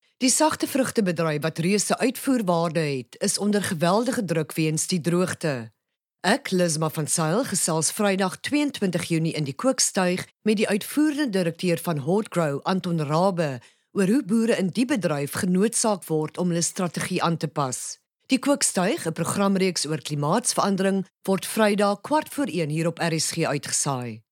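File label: Afrikaans, female, promo; voice over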